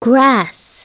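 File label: "grass", English; SoftKey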